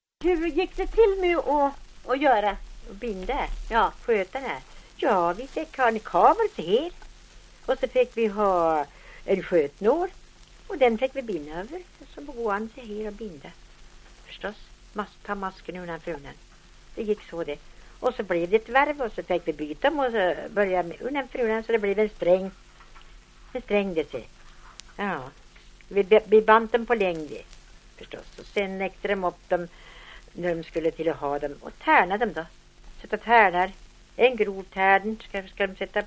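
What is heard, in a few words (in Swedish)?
Älvkarleby Uppland, dialekter; Folkminnen; Svenska sagor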